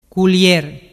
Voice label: "culier", dialettu

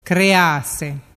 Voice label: "crease", dialettu

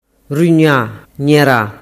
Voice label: "rugnagnera", dialettu

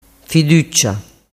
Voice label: "fiduccia", dialettu

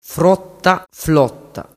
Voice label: "frottaflotta", dialettu

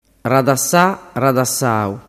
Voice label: "radasasau", dialettu

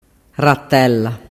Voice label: "ratella", dialettu

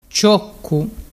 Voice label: "cioccu", dialettu

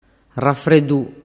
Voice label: "rafredu", dialettu

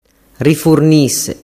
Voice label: "rifurnise", dialettu

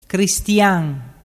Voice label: "cristian", dialettu

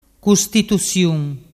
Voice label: "custitusiun", dialettu

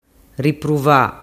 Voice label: "ripruva", dialettu

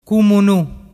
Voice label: "cummunu", dialettu

